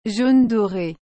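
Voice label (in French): "jaune doré", French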